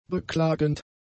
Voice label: "beklagend", German; adjectives

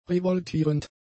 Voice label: "revoltierend", German; adjectives

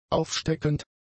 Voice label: "aufsteckend", German; adjectives

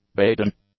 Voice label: "Baden", English; adjectives

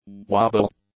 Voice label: "wobble", English; nouns

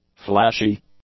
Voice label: "flashy", english; adjectives

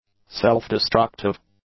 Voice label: "self-destructive", English; adjectives

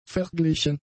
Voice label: "verglichen", German; adjectives

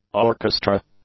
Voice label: "orchestra", English; nouns

